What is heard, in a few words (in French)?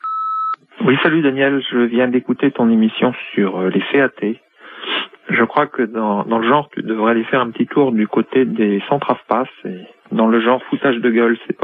repondeur